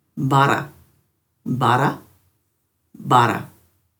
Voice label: "barra", Dhurga

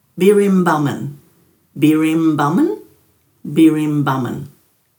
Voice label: "birimbamin", Dhurga

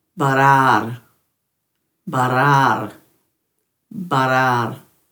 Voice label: "barraar", Dhurga; dulagaal

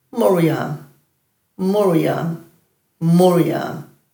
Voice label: "muryan", Dhurga

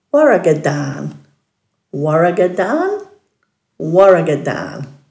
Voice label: "waragadhaan", Dhurga

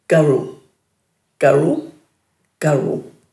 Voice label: "garu", Dhurga

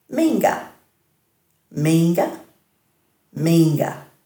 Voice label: "miingga", Dhurga

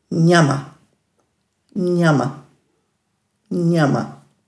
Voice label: "njama-", Dhurga

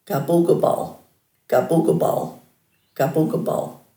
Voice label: "gabugubal", Dhurga; boy uninitiated

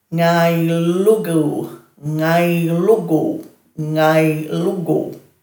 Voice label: "ngaylugu", Dhurga; dulagaal

